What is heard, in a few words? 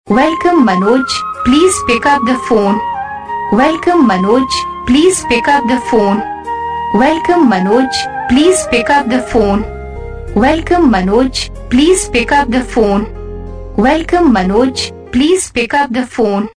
pick up the phone saying, Ringtones